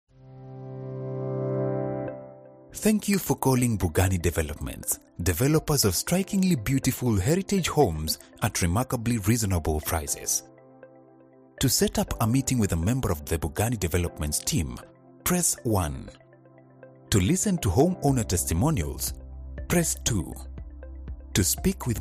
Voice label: english african ivr